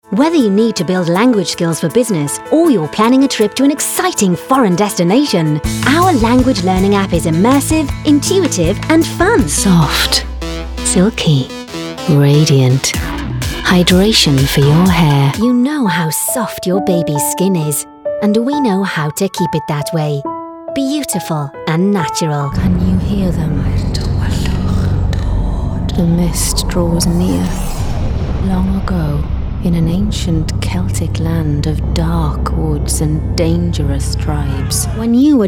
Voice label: commercial